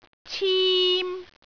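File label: "cim1", Cantonese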